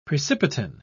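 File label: "precipitin", British English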